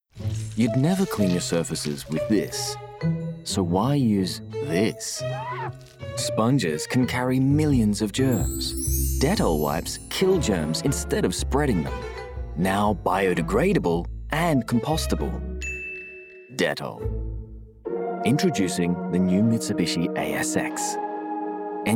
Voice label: Commercial Reel

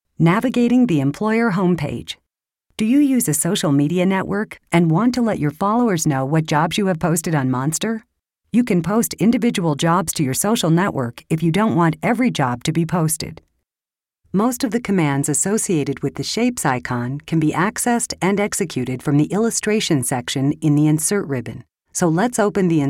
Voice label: eLearning

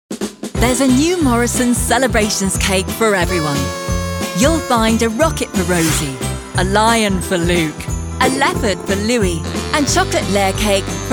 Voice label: UK Commercial

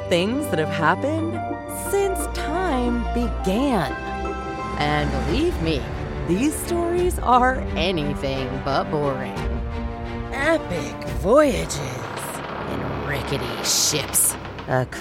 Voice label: History Narration for Kids

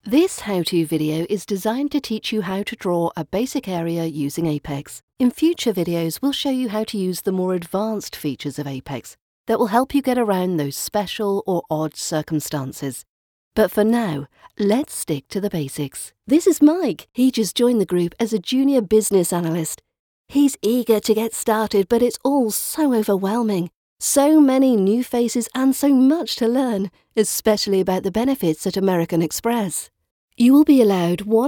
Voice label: eLearning

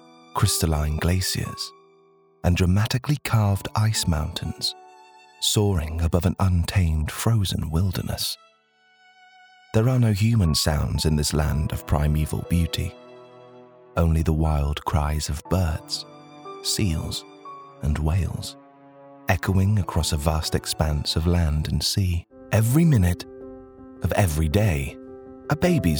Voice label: Documentary Reel

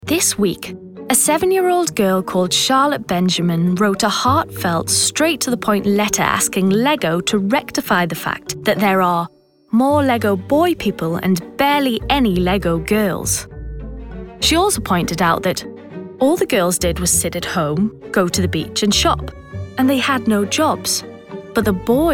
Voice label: Natural Voice Reel

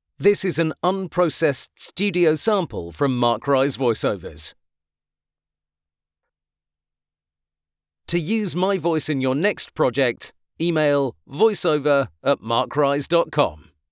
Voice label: studio sample

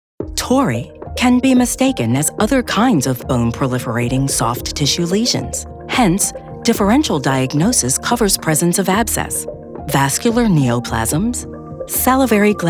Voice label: Narration

